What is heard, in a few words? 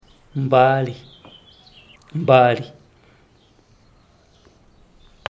"mbali", zapoteco teotitlan; compadre